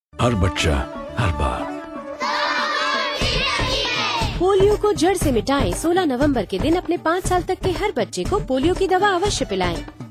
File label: Hindi Radio PSA